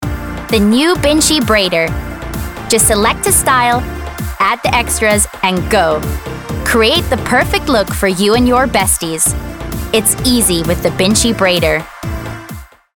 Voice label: US Commercial; Binchi Braider USA